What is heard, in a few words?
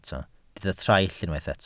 "traill", Welsh